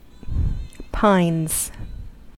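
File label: "pines", American English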